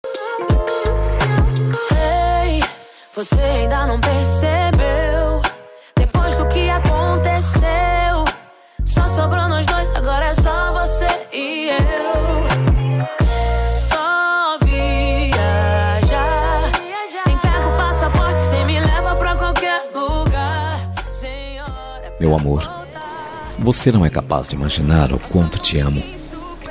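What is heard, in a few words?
male; dn namorada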